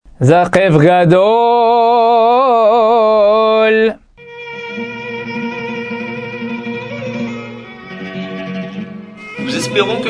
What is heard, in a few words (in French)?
apprentissage taamim selon le rite constantinois